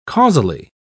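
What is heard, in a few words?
"causally", British English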